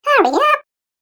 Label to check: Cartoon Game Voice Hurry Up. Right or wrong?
Right